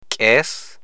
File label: "kes", Amharic